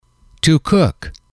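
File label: "to cook", English, male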